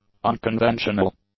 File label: "unconventional", English; adjectives